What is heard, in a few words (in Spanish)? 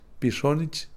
gracias, Kakchikel